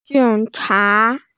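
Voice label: "jing cha", Mandarin Chinese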